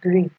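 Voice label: "gree", Nigerian Pidgin, female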